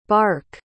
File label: "barke", pronounce